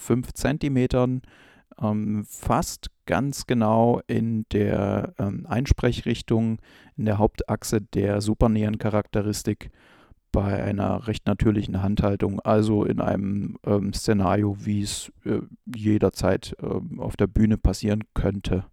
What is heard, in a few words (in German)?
img stageline dm-9s sprache m